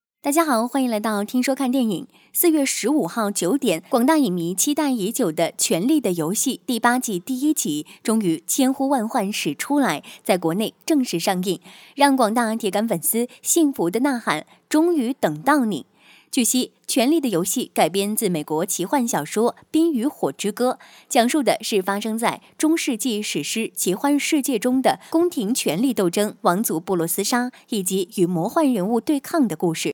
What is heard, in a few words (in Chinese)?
解说旁白